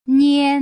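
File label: "nian", Putonghua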